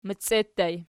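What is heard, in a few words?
"meccie" ergative plural, Archi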